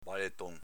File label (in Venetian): "baleton", Veneto